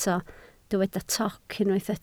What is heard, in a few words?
"toc", Welsh